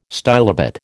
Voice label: "stylobate", American